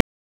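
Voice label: EMS DISP, radio; wake; p25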